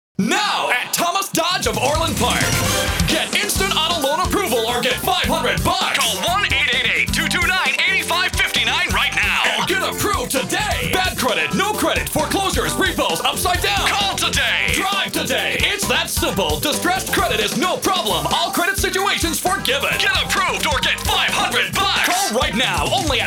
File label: Hard sell